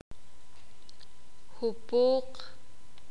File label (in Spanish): "grupo", Sakapulteko